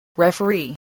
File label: "referee", spelling voice